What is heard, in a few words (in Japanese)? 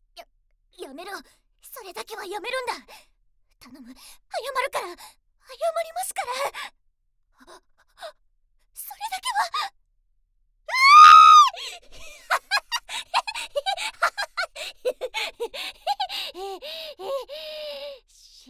嫌がる女の子